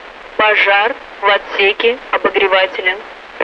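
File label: Russian speech, Mi-8MT RI65; Messages aircraft heater fire; DCS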